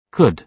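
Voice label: "could", British English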